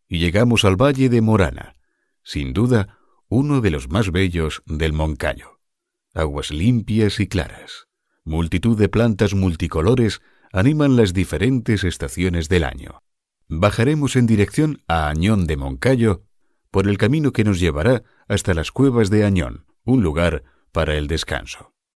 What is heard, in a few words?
spanish m narration